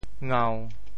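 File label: "ngau1", Teochew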